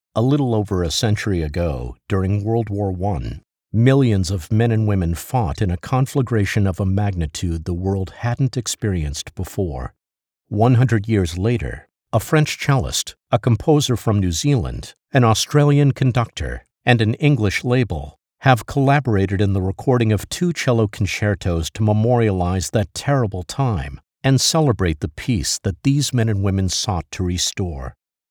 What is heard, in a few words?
Raw Studio